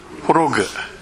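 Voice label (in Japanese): "horogu", 南山形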